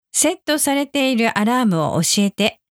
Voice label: alarm What Alarms are Set, japanese alexa commands